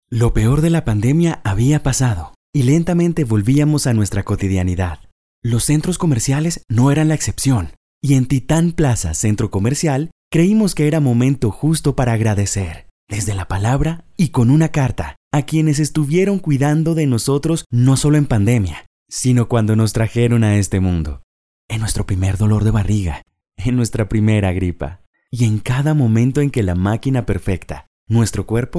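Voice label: corporativo, Colombian Spanish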